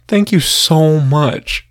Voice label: Sad Thank You

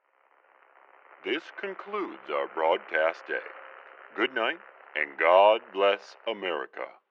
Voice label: Evening Radio Sign Off

